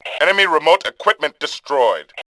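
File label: enemy remotes, Male; Tribes2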